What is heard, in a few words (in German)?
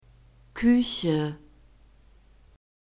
"kueche", German; phonetic script grouped consonants